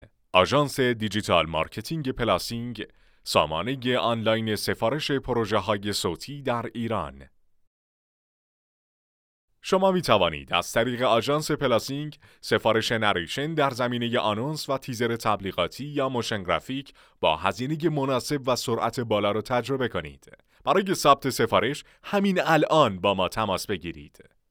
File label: narrator; نریتور و گوینده آقا